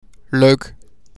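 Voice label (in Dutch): "Leuk", Dutch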